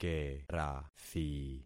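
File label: "kerathi", concatenated syllables; Affixing experiment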